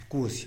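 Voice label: "pkuz", Tlacochahuaya Zapotec; spark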